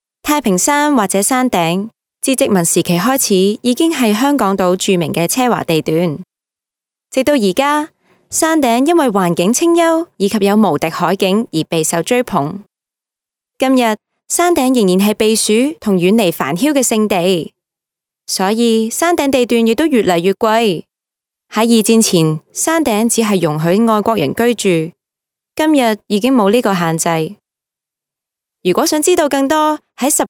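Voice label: Cantonese female corporate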